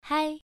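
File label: "hai", Mandarin Chinese, first tone, pronunciation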